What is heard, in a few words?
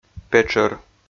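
"петшӧр", Komi, male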